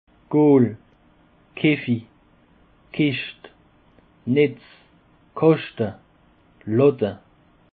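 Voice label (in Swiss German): "kaefi", Alsatian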